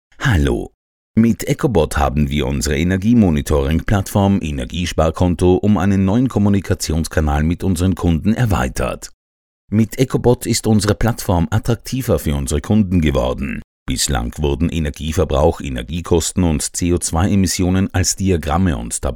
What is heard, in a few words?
german explainer videos